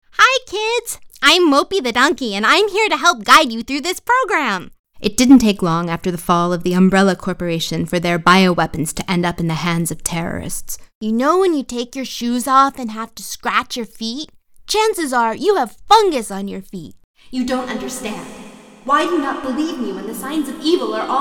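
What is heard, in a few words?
American English, Characters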